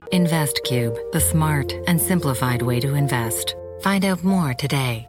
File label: Voice Over Artist Professional Trustworthy Investments